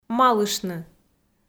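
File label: "малыштны", Komi, female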